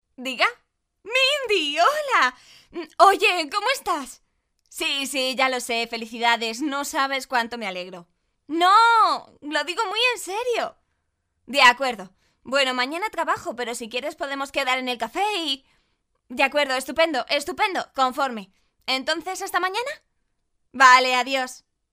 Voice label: doblaje dubbing spanish voiceover; Spanisch Sprecherin